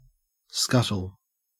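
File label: "scuttle" English, Australian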